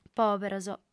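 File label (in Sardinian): "pòberos", female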